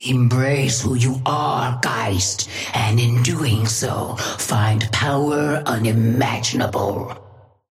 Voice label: female ally ghost start; Sapphire Flame voice line - Embrace who you are, Geist, and in doing so, find power unimaginable.